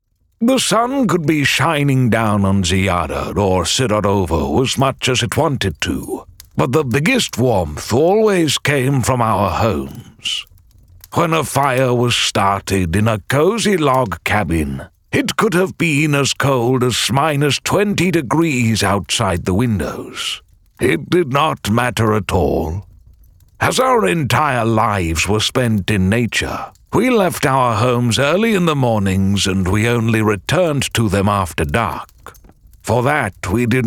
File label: Household ENG, audiosprievodca